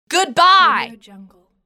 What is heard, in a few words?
female voice angry goodbye